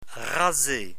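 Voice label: "raser", French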